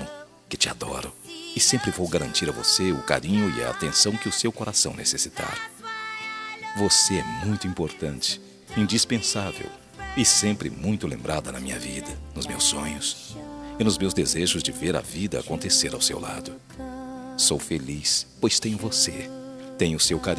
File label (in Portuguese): ANIV ROMANTICO, telemensagem aniversario romantico